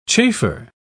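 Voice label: "chafer", British English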